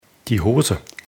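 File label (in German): "hose", German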